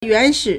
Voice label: "yuan2shi3", Chinese